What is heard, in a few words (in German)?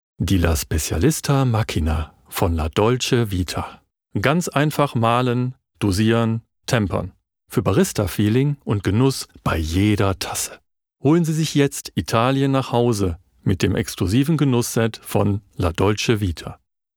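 Werbesprecher Kaffee genussvoll sinnlich soft sell, Stil: Genussvoll, sinnlich, ruhig, „Soft Sell“, Lifestyle.; La Dolce Vita – Kaffeemaschine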